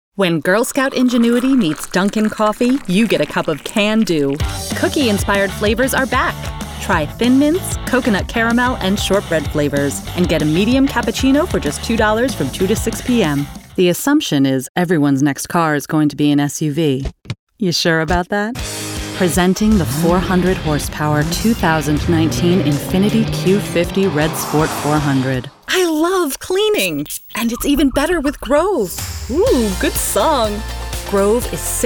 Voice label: Commercial, Professional Female Voice Over Talent